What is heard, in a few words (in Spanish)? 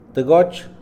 "adentro", Mixe, hombre; Espaciales; INALI